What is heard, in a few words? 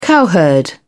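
"cowherd", British English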